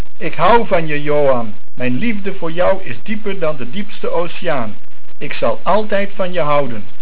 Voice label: "Hou", Dutch

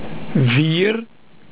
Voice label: "vier" Dutch

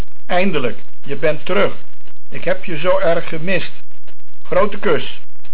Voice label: "Kus", Dutch

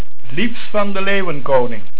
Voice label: "Liefs", Dutch

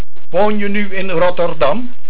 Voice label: "Woon", Dutch

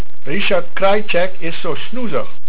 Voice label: "Snoezig", Dutch

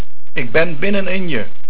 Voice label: Binnen, Dutch

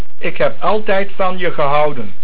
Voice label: "Altijd" Dutch

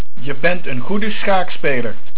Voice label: "Schaak", Dutch

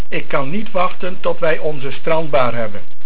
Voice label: "Strandbar", Dutch

